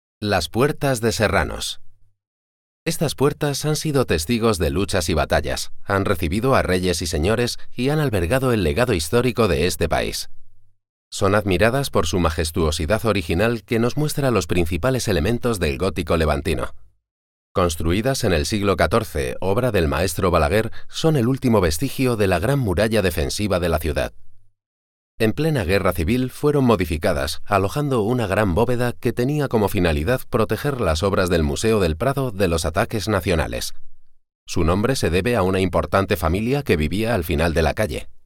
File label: Documentary Spanish